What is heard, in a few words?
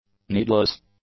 "needless", english; adjectives